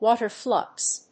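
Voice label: "Water flux", English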